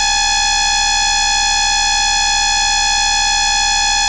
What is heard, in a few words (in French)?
message inaudible